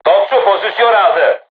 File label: voice message art charged, turkish